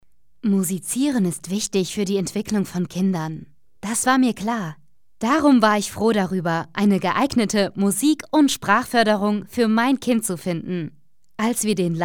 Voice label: German Female, Kurumsal, Reklam, Eğitim; Kadın; Almanca